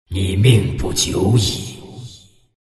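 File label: attack, chinese; dota2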